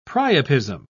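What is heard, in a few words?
"priapism", British English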